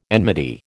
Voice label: "enmity", American

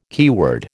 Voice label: "keyword", American

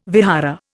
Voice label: "vihara", Indian

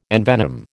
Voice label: "envenom", American

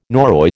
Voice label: "Norroy", American

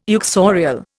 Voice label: "uxorial", Indian

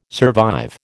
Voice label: "survive", American

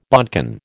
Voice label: "bodkin", American

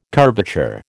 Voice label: "curvature", American

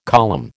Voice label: "column", American

